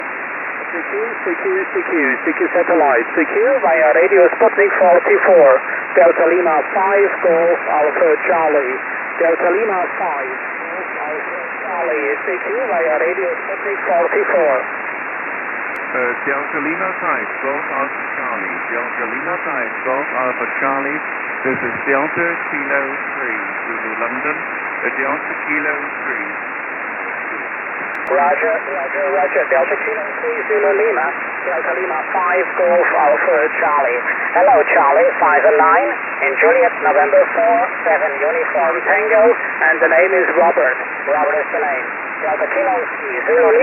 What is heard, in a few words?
QSO via RS-44